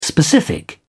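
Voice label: "specific", British English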